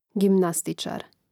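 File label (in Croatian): "gimnastičar", hrvatski; mreznik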